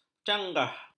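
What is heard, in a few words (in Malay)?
"canggah", Malay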